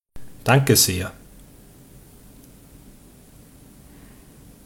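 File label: pronunciation danke sehr, German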